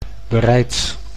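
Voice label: "bereids", Dutch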